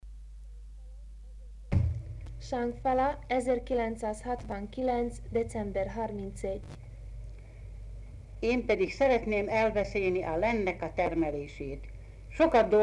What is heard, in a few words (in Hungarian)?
nyelvjaras gyujtesek